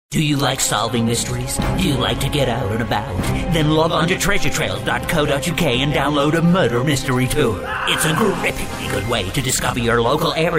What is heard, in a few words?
comedy spook